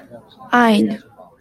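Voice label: "Ainu", Japanese, female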